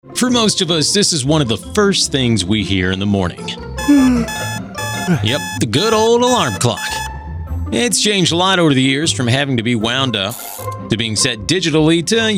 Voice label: Narration